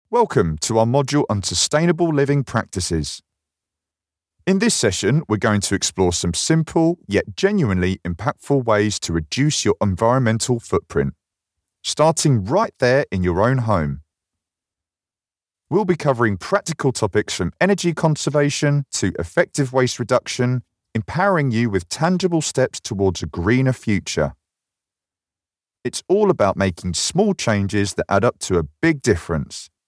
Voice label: london male elearning